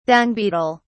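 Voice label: "dung beetle", British English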